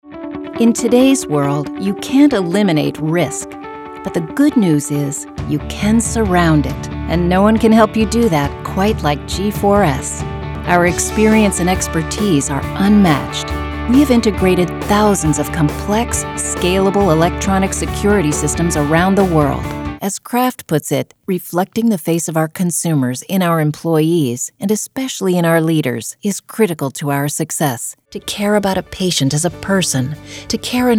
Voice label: Corporate

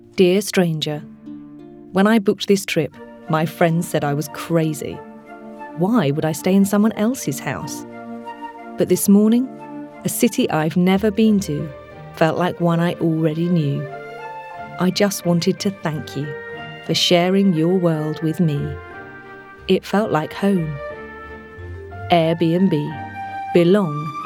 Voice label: Commercial Upbeat

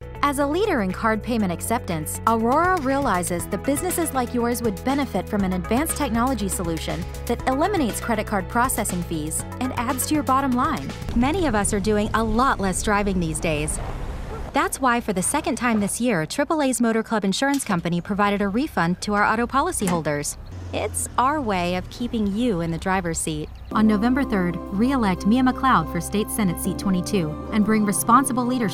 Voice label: commercial compilation

